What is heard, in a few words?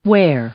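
"wear", English; verb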